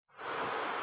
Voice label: Voice Note